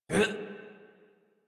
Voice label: Adult Operator Jump, en; Warframe; New War